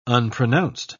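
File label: "unpronounced", British English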